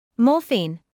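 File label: "morphine", British English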